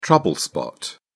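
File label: "trouble spot", British English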